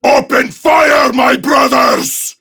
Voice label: Attack Prime Grineer Male; Tau; Battle Barks